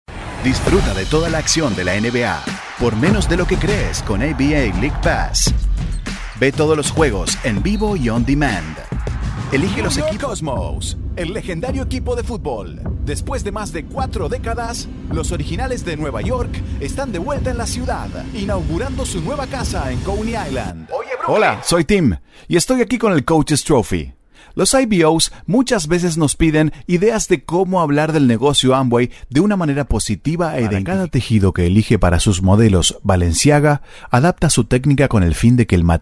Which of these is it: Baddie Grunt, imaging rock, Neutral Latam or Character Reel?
Neutral Latam